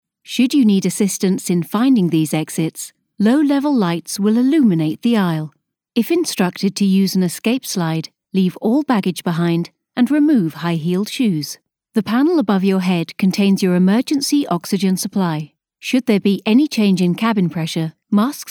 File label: Airline Safety Video